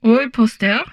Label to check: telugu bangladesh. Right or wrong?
Wrong